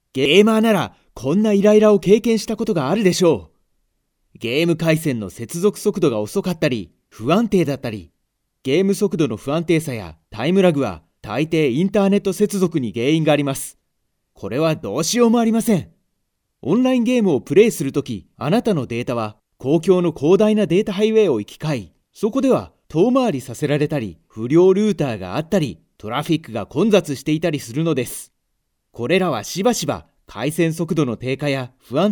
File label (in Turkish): Japonca